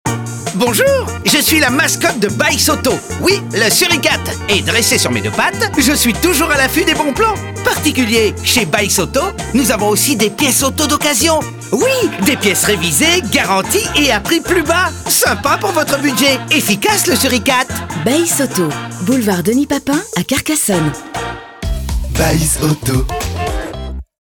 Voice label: spot; Baisse auto carcassonne